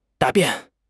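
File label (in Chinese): "答辩", Chinese; HSK6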